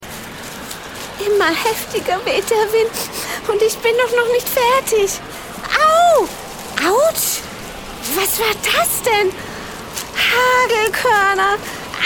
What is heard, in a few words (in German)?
Hoerspielszene